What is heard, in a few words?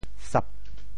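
"sap4", Teochew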